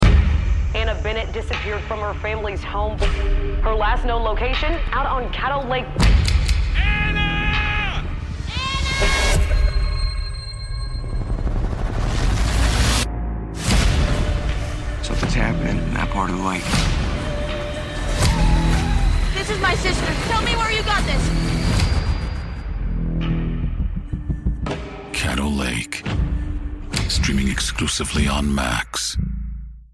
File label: Caddo Lake, TV Spots